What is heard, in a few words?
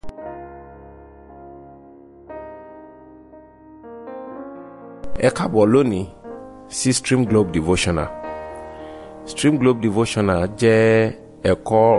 Devotional Audio Yoruba